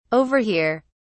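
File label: "over here", British English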